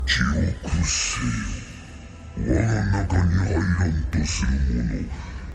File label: cave japanese